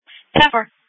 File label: Sheriff Dispatch